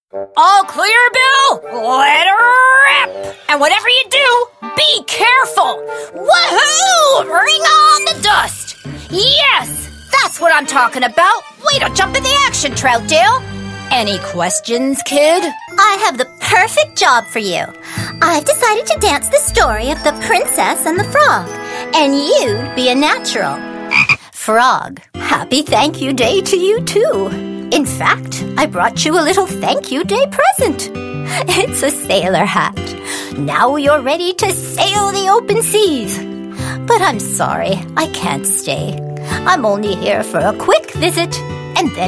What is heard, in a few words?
Animation